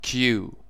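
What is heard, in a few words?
"cue", British English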